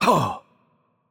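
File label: Hurt Light, Polish; bloom minigame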